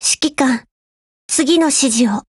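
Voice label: HELLO Japanese; GF Alarm; HK416Mod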